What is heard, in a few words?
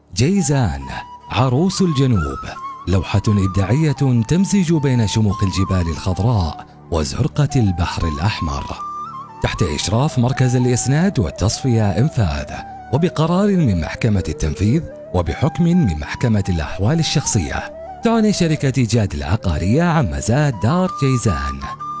arabic real estate